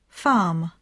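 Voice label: "farm", English; poitiers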